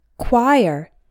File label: "choir", English